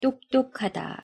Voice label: "똑똑하다", Korean; pronunciation

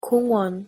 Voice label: pronunciation ko 공원

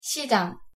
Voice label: pronunciation ko 시장

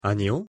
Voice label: "아니요", pronunciation ko

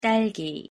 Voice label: "딸기", Korean; pronunciation

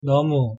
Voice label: "너무", Korean

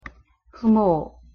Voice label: "부모", Korean; pronunciation

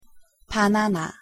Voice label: "바나나", Korean; pronunciation

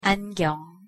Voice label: "안경", Korean; pronunciation

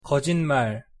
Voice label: "거짓말", Korean

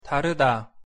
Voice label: "다르다", Korean

